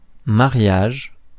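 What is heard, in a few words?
"mariage", French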